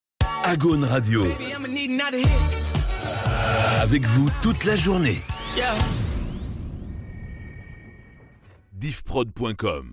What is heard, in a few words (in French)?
Radio Sweep ID Ete; Agaune